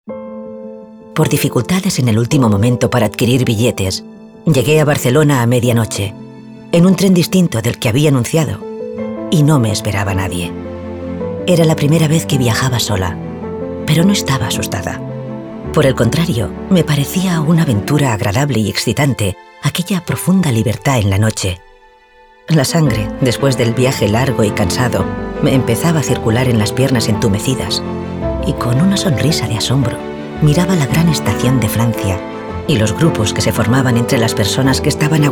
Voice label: TONO NARRATIVO AUDIOLIBRO

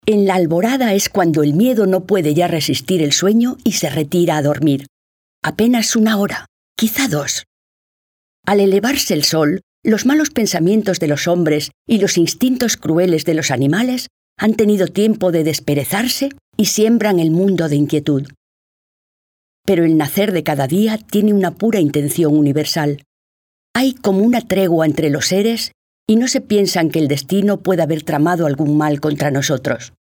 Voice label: LOCUTORA LECTURA

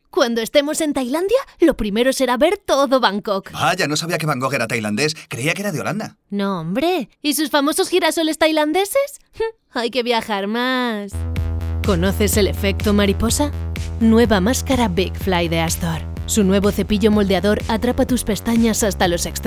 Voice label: LOCUTORA LOCUCIONES VARIAS

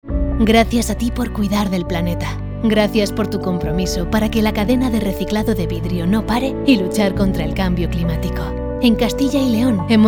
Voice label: LOCUTORA DEMO; ECOVIDRIO

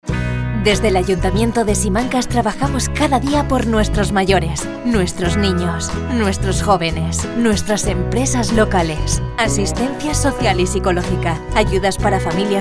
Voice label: LOCUTORA DEMO; AYTO. SIMANCAS

